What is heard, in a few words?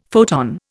"photon", Indian; Dictionary